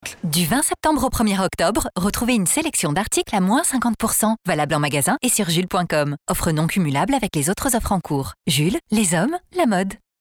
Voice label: female French spot radio